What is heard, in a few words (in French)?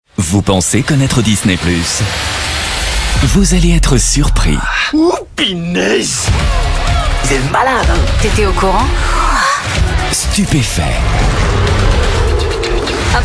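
complice souriant, Comédien voix off, publicité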